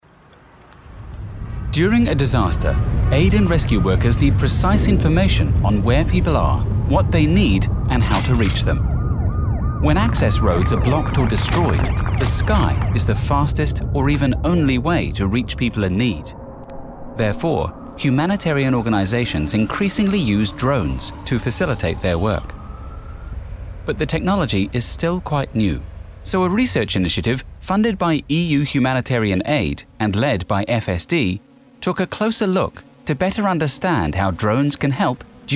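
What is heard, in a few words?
Narration UK; Foreign Languages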